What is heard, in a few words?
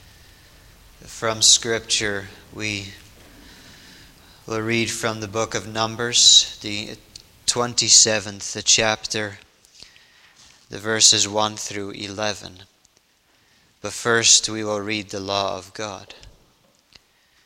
Bible Reading